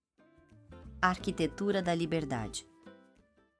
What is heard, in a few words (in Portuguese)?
narracao; arquitetura da liberdade